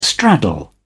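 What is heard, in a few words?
"straddle", British English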